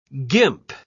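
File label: "gimp", British English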